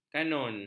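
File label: "kanun", Malay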